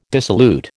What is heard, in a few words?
"dissolute", American